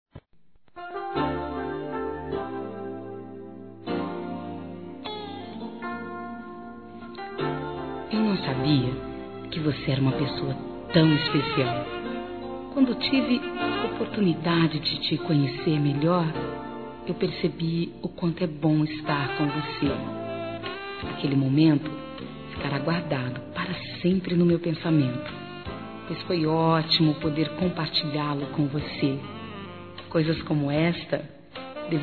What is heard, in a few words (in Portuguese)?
MOMENTOS, fem; Telemensagens; Telemensagens 2017